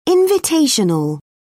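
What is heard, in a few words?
"invitational", British English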